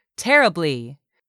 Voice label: "terribly", english; 3000 words